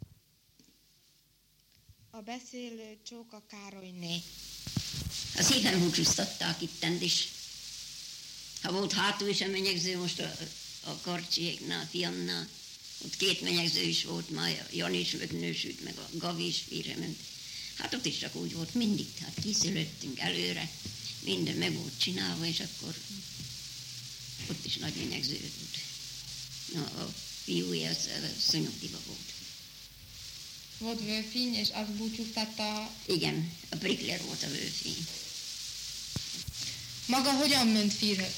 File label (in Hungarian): nyelvjaras gyujtesek